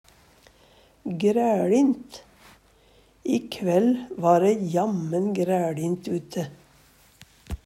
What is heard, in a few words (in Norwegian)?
græLint, Numedalsmål; Vêr og føre; Adjektiv